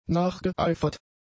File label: "nachgeeifert", German; adjectives